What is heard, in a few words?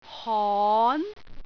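"hon2", Cantonese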